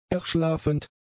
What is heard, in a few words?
"erschlaffend", German; adjectives